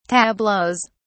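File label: "tableaux", British English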